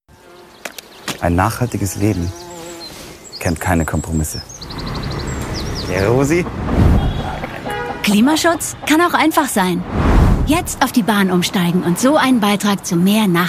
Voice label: Spots OFF, frauen; DB Nachhaltigkeit

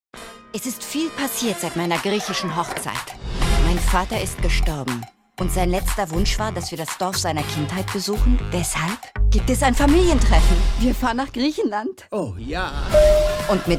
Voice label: Synchron, maenner; MyBigFatWedding 3

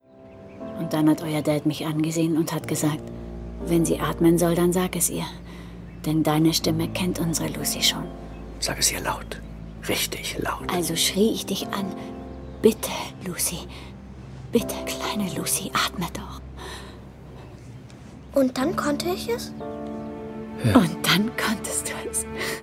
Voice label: Synchron, Frauen; No Escape

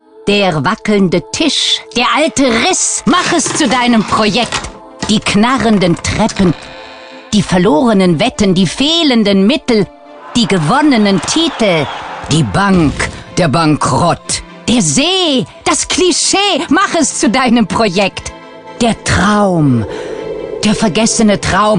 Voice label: Off, frauen; Hornbach

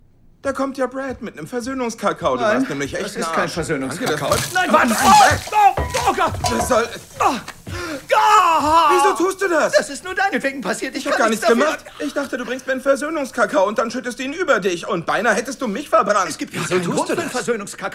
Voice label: Synchron, maenner; Daddy´s Home 2

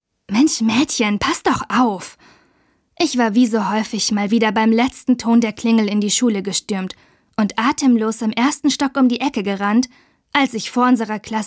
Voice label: Hörbuch, Frauen; MädchenMädchen

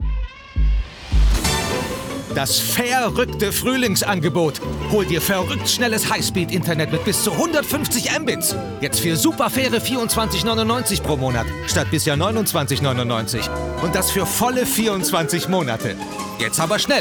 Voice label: Spots OFF, maenner; Unitymedia; Fairrueckt

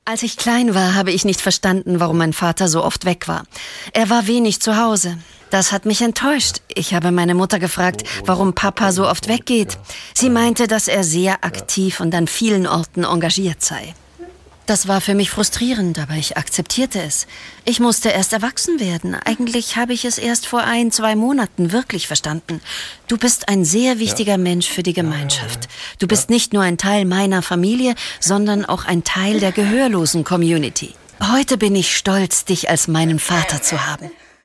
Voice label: Voice Over, frauen; SRF; Die Kraft der Zuversicht

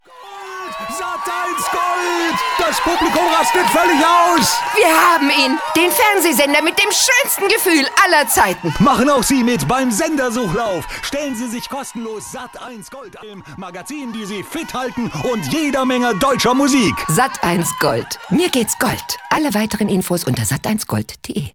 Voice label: Spots Off, frauen; sprecher; Sat1Gold

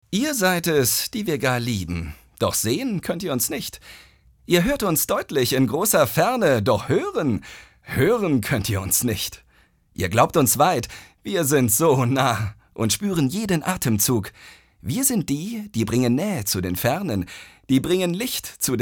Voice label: froehlich, maenner

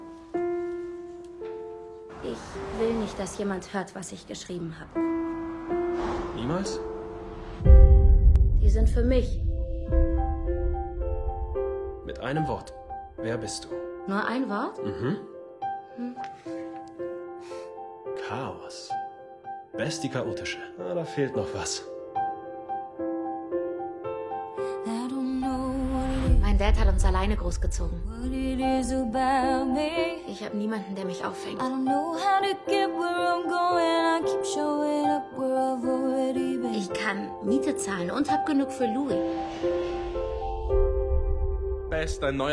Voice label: Synchron; Her Voice

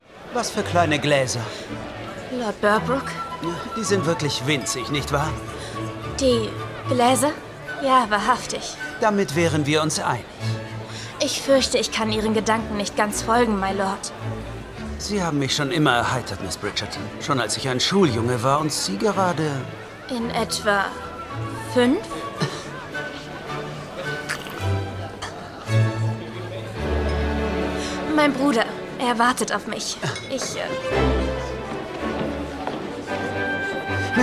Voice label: Synchron, maenner; Bridgerton

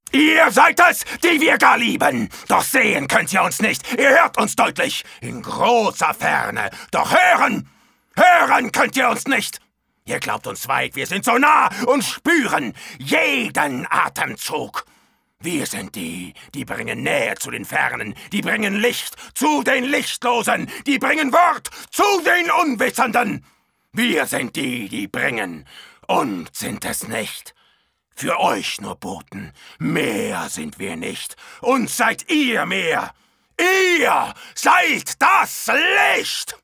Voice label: aggressiv, maenner

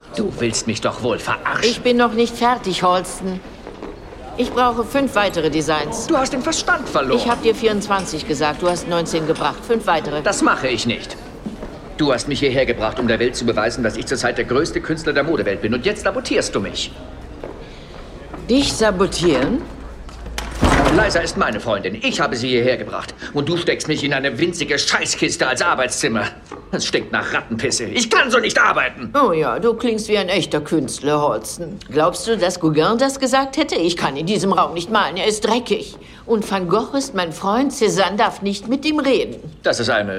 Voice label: Synchron, maenner; Halston

